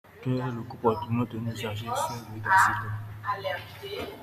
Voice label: YORUBA